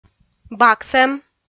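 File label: "баксӧм", Komi-Zyrian, female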